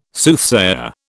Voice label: "Soothsayer", British